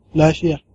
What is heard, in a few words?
fine, hausa